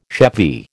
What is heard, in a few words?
"chevy", American